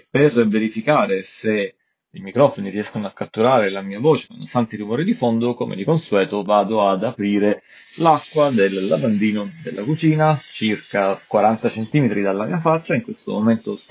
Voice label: Test audio Skullcandy Indy ANC

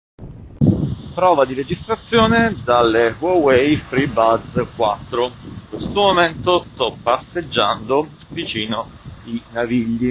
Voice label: Test registrazione freebuds 4

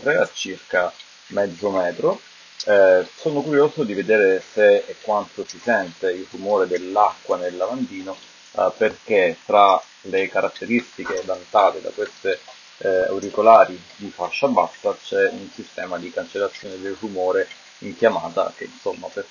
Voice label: Test registrazione Honor Choice True Wireless Earbuds interno

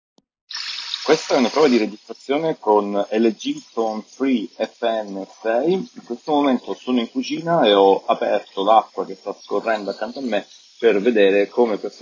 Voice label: Test registrazione LG Tone Free FN6 interno

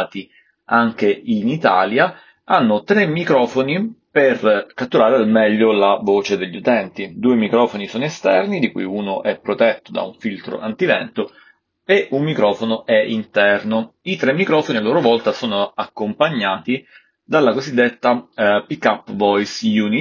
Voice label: Prova registrazione Galaxy Buds Pro

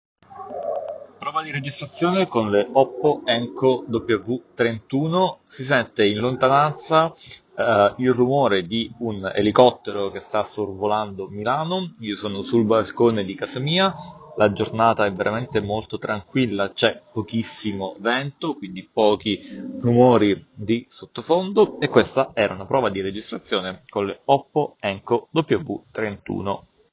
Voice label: registrazione Oppo Enco W31

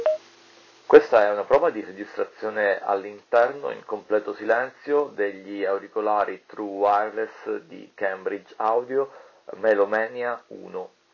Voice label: Sample registrazione Cambridge Audio Melomania

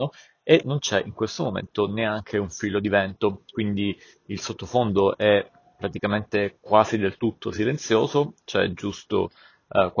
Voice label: Huawei FreeBuds Pro Test microfono esterno

